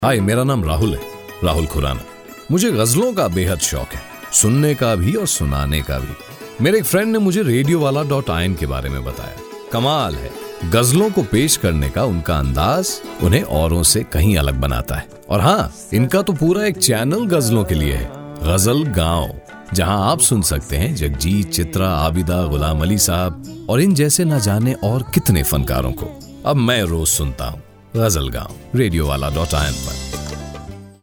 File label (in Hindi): promo hindi